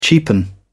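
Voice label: "cheapen", British English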